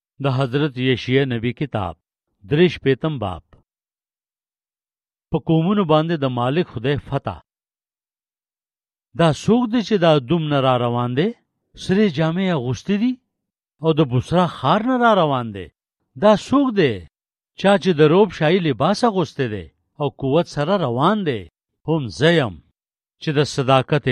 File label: Isaiah - Chapter 63 in the Pashto language, Yousafzai; Afghan Bibles